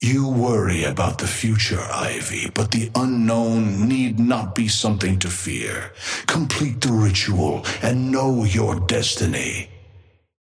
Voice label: male ally start; Patron; tengu

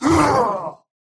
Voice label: pain death; Slork

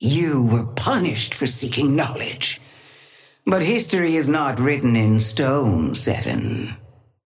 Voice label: female; Patron; gigawatt

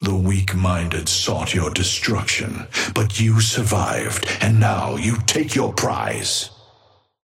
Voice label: male ally gigawatt start; Patron

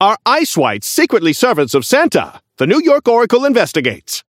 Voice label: Newscaster seasonal unlock; kelvin

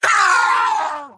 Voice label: pain death

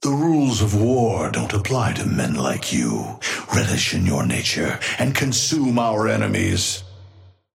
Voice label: Patron male ally; wrecker

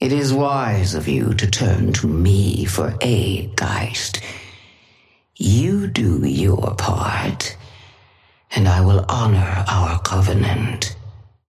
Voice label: female; Patron; ghost

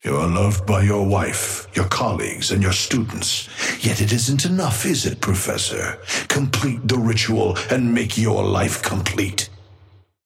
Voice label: male ally start; Patron; dynamo

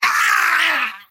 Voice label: pain death

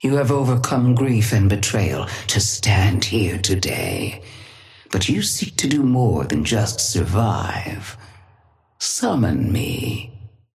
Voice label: female ally yamato start; Patron

